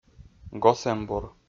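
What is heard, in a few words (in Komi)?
"госэмбур", Komi, male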